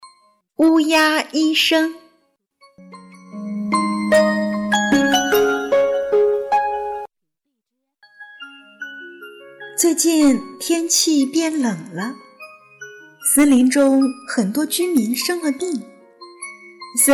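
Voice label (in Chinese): 童话故事; 乌鸦医生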